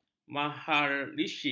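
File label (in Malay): "maharishi", Malay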